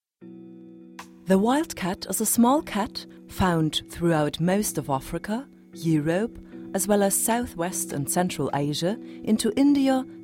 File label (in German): Doku Englisch